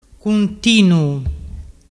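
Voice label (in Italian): "cuntinuu", Vernazzese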